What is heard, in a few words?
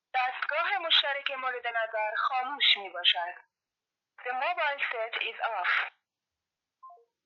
telephone operator